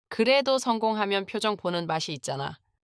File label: excitement